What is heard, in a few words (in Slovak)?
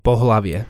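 "pohlavie", Slovak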